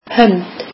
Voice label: icelandic hand